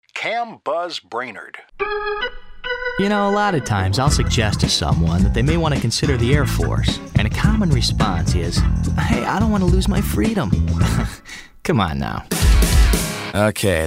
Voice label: male; Spotland Productions